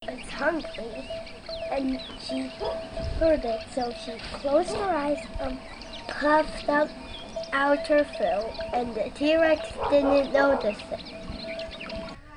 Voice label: ambient rural child talking normal